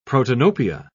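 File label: "protanopia", British English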